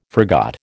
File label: "forgot", American